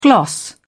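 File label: "gloss", English, British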